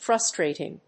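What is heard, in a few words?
"frustrating", English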